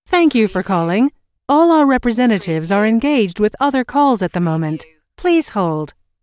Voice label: US female phone prompt Thank you for calling All our representatives are engaged with ot; All our representatives are engaged with other calls; lynne-publishing